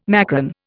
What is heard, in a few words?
"macron", Indian